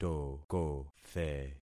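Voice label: "tokothe", concatenated syllables; Affixing experiment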